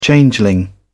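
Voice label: "changeling", British English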